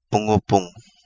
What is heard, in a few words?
"Pungupungu", Kapingamarangi